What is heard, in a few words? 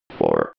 robot voice four